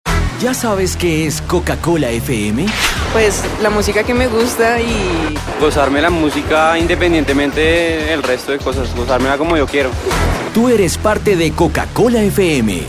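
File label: latam colombian male commercial; voice demos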